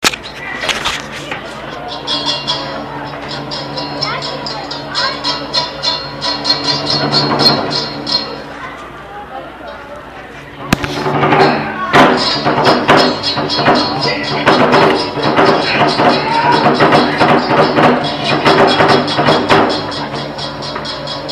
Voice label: JAPANESE FIGHTING